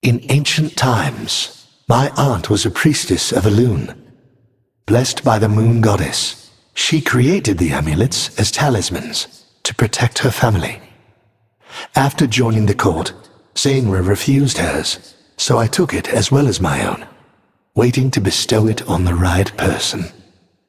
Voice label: Male Naga Story Lorebook